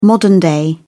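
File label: "modern-day", British English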